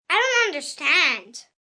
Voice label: I Dont Understand, 1 boy, 8 yrs; Talking Sound Effects

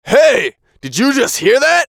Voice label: VOICE COMICAL; Radio

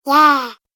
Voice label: "Yeah", Kids Cartoon Game Voice; Recorded Voice Sound Effects

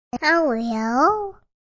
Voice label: Toddler "How are you?"; Talking Sound Effects

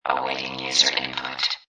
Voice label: Robot Voice, Robot Type 1 voice; "Awaiting user input"; Robot Sound Effects

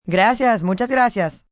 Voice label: Thanks A Lot, female, Spanish; Etiquette, female, foreign, Spanish

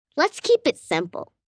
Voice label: Lets Keep It Simple, Adult Phrase, 1 girl, 9 yrs

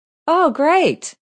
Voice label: Oh Great Female, Exclamation; VoiceBox

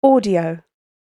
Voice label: British Female Voice; Recorded Voice Sound Effects; human voice sound effect

